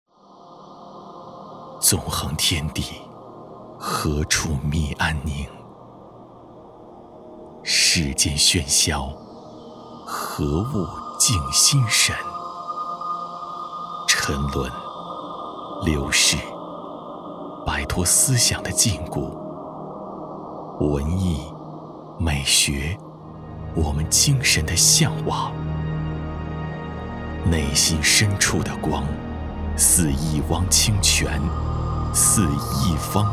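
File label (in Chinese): 广告走心空灵; 阅无界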